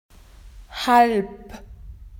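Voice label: "half", Australian English; PictureDictionary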